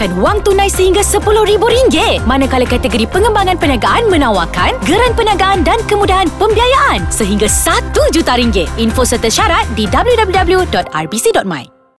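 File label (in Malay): Call For Entries Malay COMMERCIAL; KKLW; RBC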